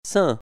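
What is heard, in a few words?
"cinq", French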